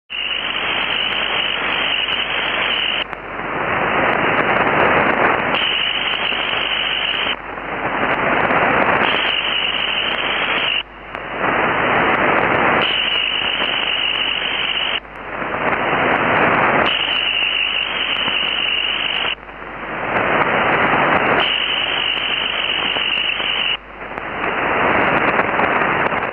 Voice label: noise canceller radio volmet; tokyo